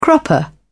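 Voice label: "cropper", British English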